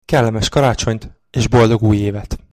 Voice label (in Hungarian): hppyxmas hungarian